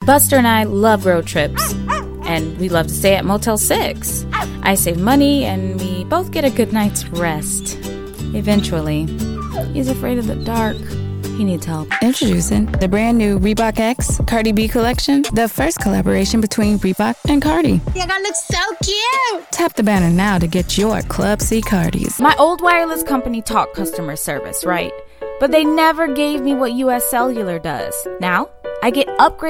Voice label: Voiceover Commercial Women; Chicago